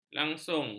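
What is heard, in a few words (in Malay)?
"langsung", Malay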